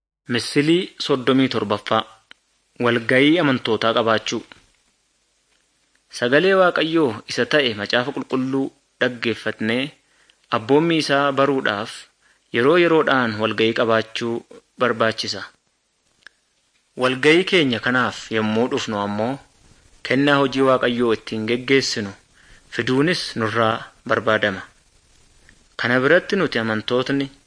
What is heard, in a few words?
good news oromo wellega